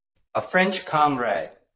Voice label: Voice Acting, es